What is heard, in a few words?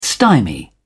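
"stymie", English, uk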